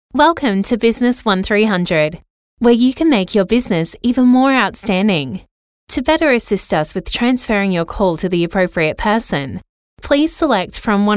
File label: Friendly